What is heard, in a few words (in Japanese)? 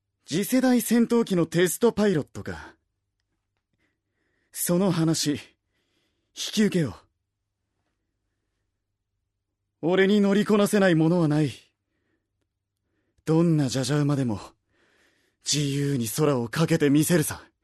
アニメ